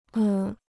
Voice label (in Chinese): "恶", Chinese